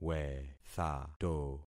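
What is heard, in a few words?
"wethato", concatenated syllables; Affixing experiment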